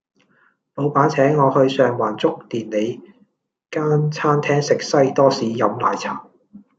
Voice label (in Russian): Голоса, Гонконгский